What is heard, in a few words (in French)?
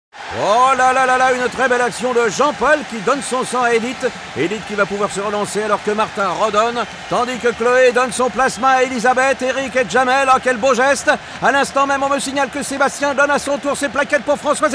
Spot radio don du sang